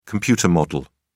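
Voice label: "computer model", English, British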